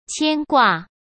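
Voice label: "牵挂", Mandarin Chinese; chinese flashcards; hsk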